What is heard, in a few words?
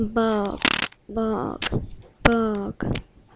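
"bog", spoken word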